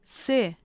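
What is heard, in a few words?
"se", Tajik, female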